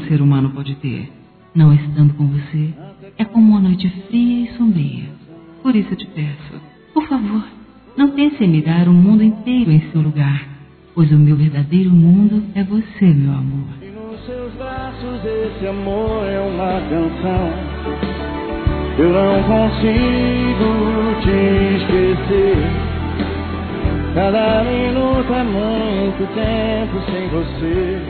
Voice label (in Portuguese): Romântica distante Feminino; Telemensagens; Sensuais